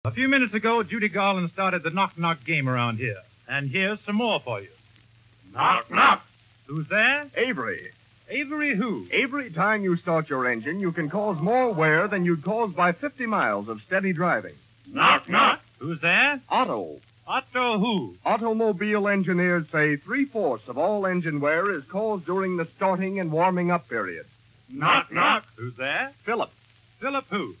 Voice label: Radio Transcription Shell Commercial; Shell Chateau Program